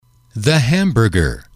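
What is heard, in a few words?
"the hamburger", English, male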